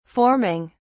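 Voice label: "forming", English, British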